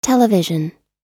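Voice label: "television", American English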